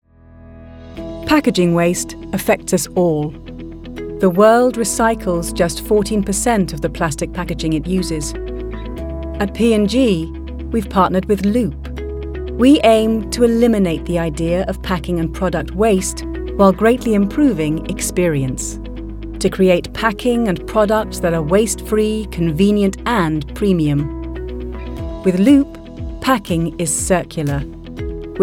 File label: corporate